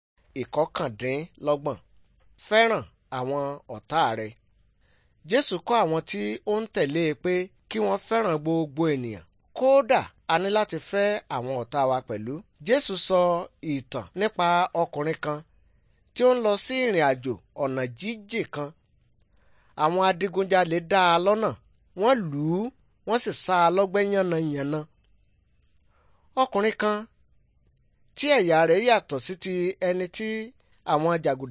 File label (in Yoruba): good news yoruba